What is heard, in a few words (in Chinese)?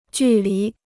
"距离", Chinese